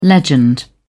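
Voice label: "legend", British English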